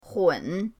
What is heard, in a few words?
"hun3", pinyin